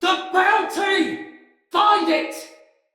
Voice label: Sight Lost Shout, Male, en; Battle; Barks; Dialog; Sinecure Banneret

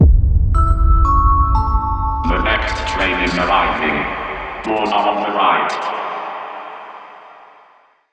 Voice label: Subway Announcer, en; Warframe; Entrati Lab Quest; 2023 TennoCon

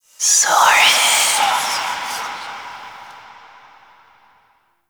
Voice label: Whispers; Sorren

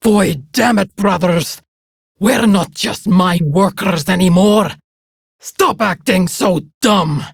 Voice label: Patrol Prime Grineer Female; Tau; Battle Barks

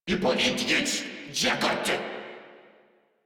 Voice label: Reload Crewmen, en; Warframe; Corpus Crewmen; Crewman A; Battle Barks

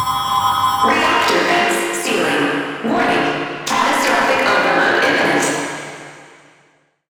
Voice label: Alarm Corpus Ship Voice; Warframe; Protea Quest

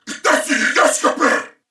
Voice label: Player Spotted Male, en; Dialog; Barks; Battle; Corpus Venus; Warframe; CorpusVenusMaleB

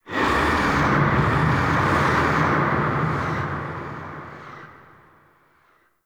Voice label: Sentient Vessel Delay Pain; Warframe; Tau

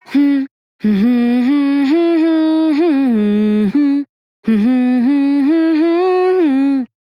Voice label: Female Worker; Dialog; Warframe; Solaris Venus

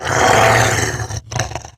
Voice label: Pain Grineer Wolf, en; Battle Barks

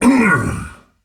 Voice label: Pain Heavy; Warframe; Dax Heavy; Battle Barks

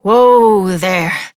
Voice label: Horse Stop Walk Adult; Duviri

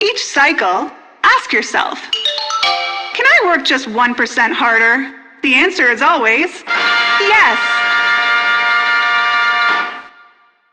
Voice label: PA System, en; Warframe; Solaris Venus

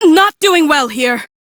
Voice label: Crew Near Death, Female; Warframe; Railjack; Cephalon Suda

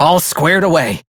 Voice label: Crew Task Done, Male; Dialog; Warframe; Railjack Crew; Perrin Sequence

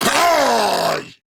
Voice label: Attack Grineer Ghoul Sargeant, en; Grineer Ghoul Drill Sargeant; Battle Barks

